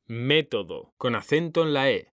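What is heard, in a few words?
"método", Spanish, male; mekanta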